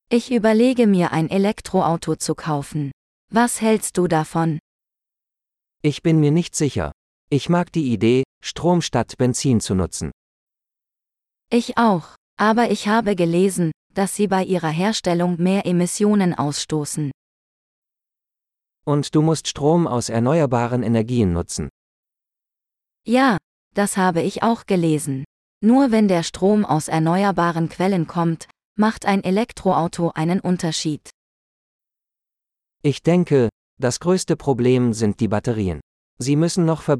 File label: Electric Cars - Dialogue; de-en